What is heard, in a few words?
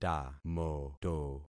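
"tamoto", concatenated syllables; Affixing experiment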